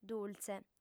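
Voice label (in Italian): "dultze", lingua sarda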